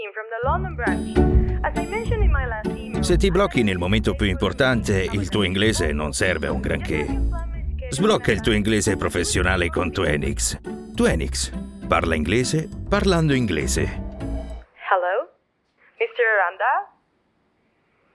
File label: italian